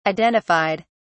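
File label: "identified", British English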